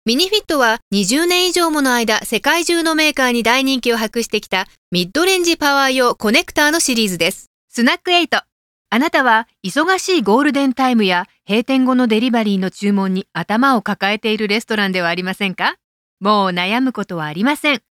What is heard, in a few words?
Japanese Corporate Reads, Japanese Female